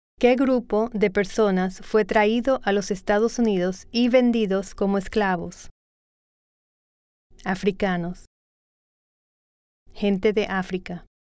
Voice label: Spanish